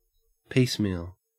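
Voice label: "piecemeal", Australian English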